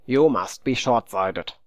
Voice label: taunt, voice